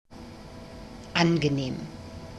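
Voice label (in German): "angenehm", German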